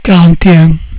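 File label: "quarante-un", French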